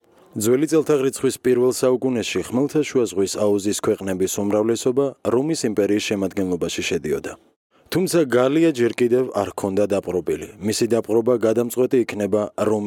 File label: Georgian